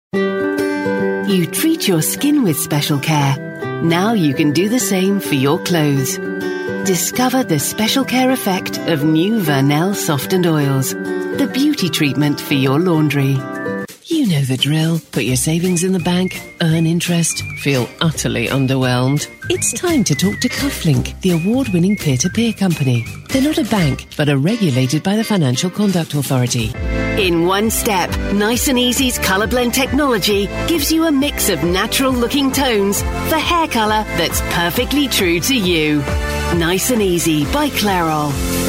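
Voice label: commercials, female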